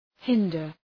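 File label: "hinder", English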